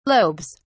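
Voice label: "lobes", English, British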